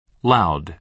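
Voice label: "loud", American English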